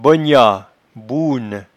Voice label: "bognatbouene", patois jura